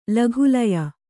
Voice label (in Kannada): "ಲಘುಲಯ", Kannada